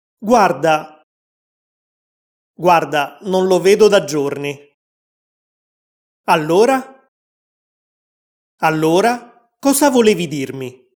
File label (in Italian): Easy Italian Attirare l'attenzione di qualcuno; Italianglot; Easy Italian Pack